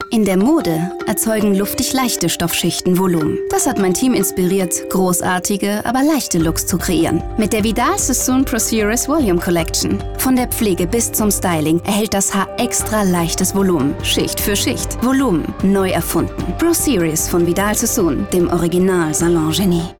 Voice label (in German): Lebendig; Fremdtake